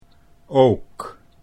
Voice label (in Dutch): "ook", Dutch